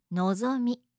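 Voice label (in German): "nozomi", japanische Mädchennamen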